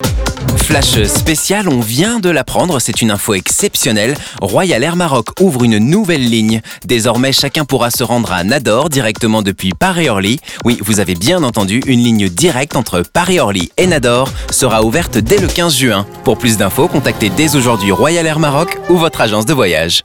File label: Radio; RAM; Nador